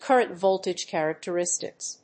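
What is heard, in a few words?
"current-voltage characteristics", English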